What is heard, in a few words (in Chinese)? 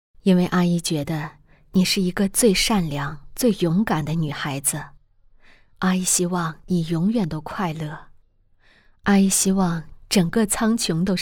温柔关切中年妈妈